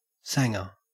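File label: "sanga", Australian English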